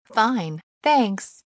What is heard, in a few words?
fine thanks woman